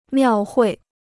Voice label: "庙会", Chinese

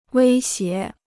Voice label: "威胁", Chinese; wēi xié; to threaten; to menace

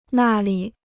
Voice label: "那里", Chinese

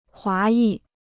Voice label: "华裔", Chinese

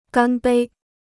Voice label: "干杯", Chinese

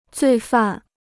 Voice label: "罪犯", Chinese

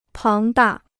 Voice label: "庞大", Chinese

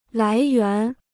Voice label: "来源", Chinese

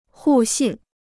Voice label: "互信", Chinese

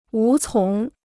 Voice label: "无从", Chinese

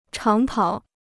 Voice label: "长跑", Chinese

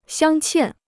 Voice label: "镶嵌", Chinese

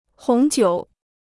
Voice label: "红酒", Chinese

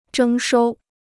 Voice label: "征收", Chinese

